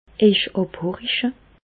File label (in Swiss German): abhoriche, Bas Rhin; medecine; vous ausculter